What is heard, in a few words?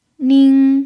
"ning1", chinese pinyin